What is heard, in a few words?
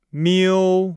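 "miu", Chinese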